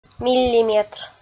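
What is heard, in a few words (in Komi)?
"миллиметр", Komi, female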